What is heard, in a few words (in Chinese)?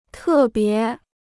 "特别", Chinese